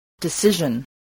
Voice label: "decision", English